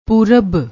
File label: "poorab", Punjabi